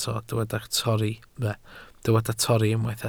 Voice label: "torri", Welsh